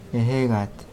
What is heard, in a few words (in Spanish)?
Nawat palabras viento; pipil